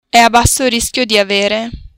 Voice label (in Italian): "basso rischio", italian